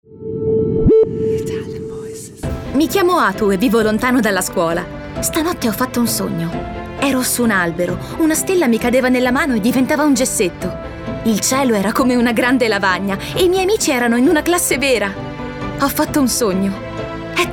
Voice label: Italian voices, Upbeat Genuine